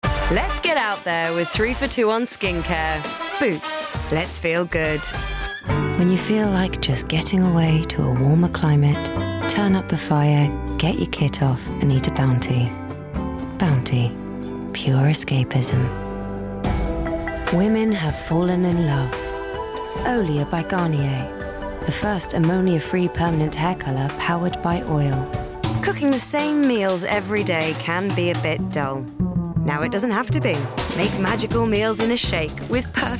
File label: Commercial Montage